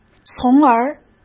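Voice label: "从而", Mandarin Chinese; chinese-flashcards; hsk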